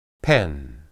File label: pen, hebrew